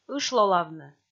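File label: "ышлолавны", Komi, female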